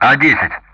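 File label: Speech Sound Russian Common Player; DCS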